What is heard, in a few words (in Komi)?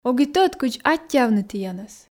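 ог и тӧд кыдз аттьӧавны тіянӧс, Komi, female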